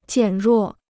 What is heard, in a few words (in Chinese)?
"减弱", Chinese